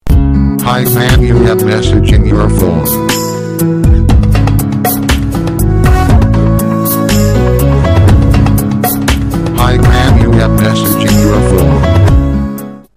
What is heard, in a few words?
hi man ringtone